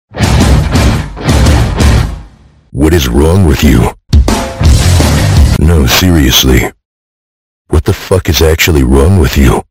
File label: soldier battle cry